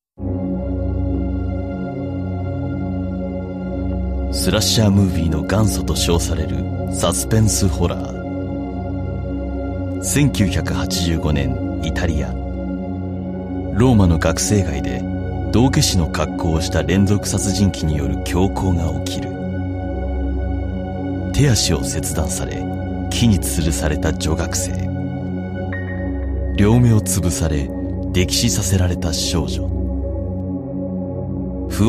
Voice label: japanese movie trailers

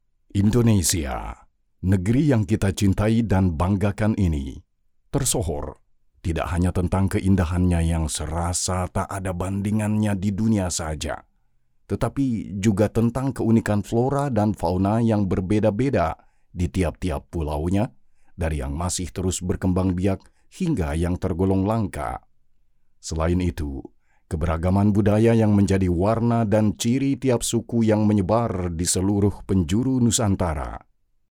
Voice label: indonesian corporate videos

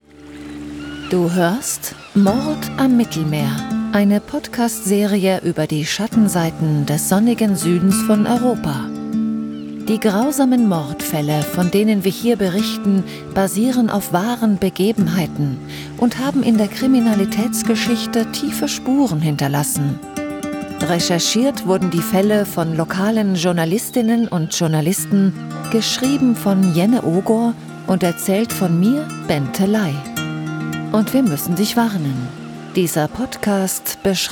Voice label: german podcasts; professional voice over artist native in both German and Norwegian

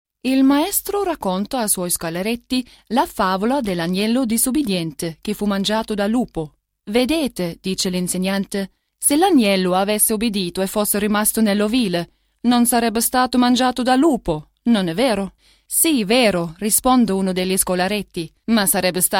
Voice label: italian commercial

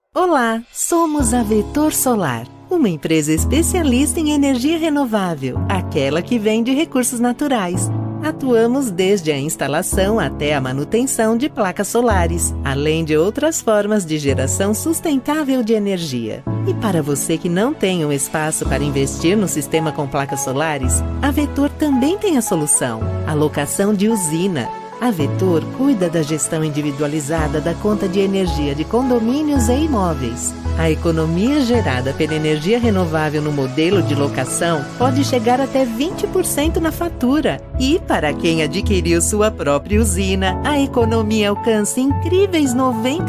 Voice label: portuguese brazilian animation

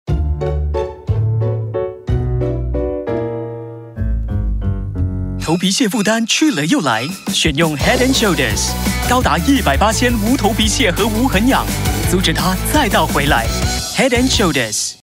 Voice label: chinese mandarin real estate, voice actors

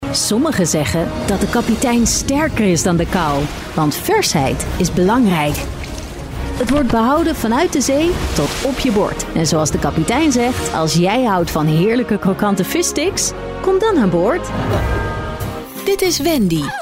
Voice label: dutch commercial; Niederländisch; erfahrener niederländischer Synchronsprecher mit Sitz in Großbritannien

